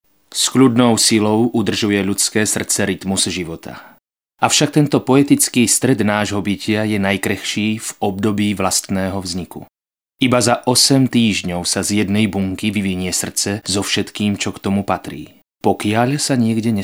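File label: Male Slovak documentary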